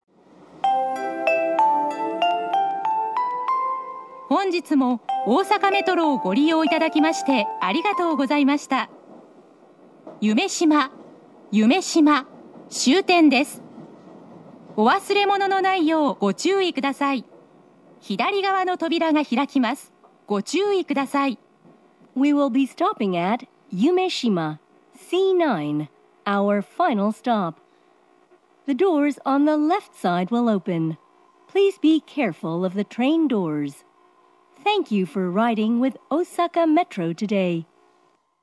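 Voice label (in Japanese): 大阪メトロ中央線車内放送, 夢洲到着; 夢洲到着(左)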